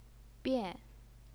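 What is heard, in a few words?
"bian", Mandarin Chinese, fourth tone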